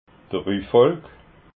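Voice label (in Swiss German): "rufolk", Alsatian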